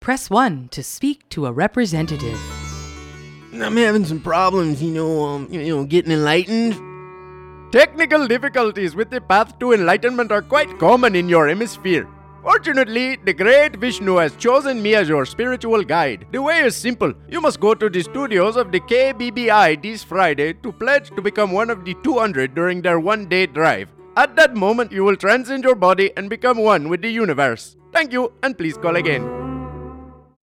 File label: promo; KBBI